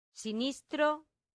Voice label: "sinistro", latin; santillana